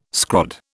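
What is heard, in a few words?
"scrod", British English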